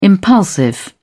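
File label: "impulsive", British English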